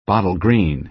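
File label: "bottle green", American English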